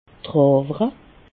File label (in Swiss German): "drewere", alsace